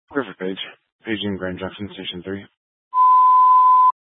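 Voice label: Fire Dispatch, Scanner audio recording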